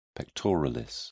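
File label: "pectoralis", British English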